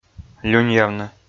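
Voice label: "люньявны" Komi, male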